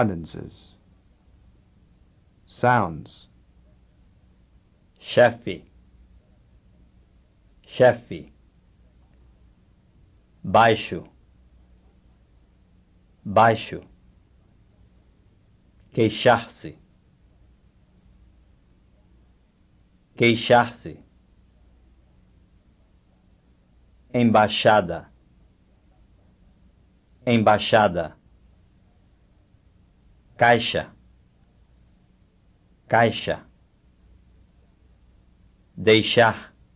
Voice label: From Spanish to Portuguese; FSI